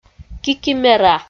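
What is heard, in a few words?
"кикимера", Komi, female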